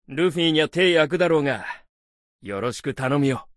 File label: voice, dubbing